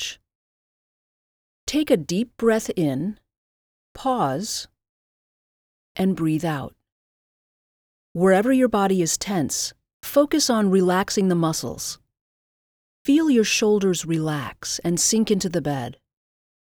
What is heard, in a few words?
guided sleep